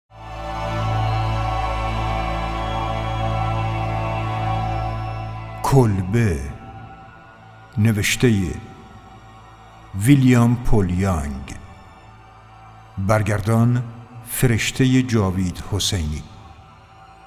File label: announce